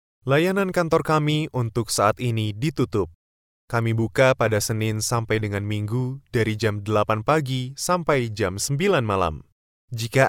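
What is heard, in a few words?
Indonesian IVR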